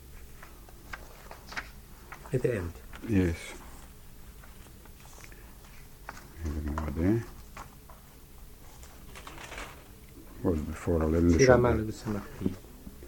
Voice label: conversation; Succot